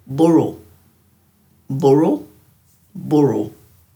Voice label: "buru", Dhurga